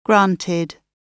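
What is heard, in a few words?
"granted", British English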